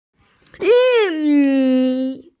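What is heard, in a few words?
"yu mi", Mandarin Chinese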